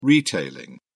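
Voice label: "retailing", English, British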